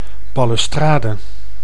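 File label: "ballustrade", Dutch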